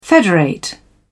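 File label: "federate", British English, dictionary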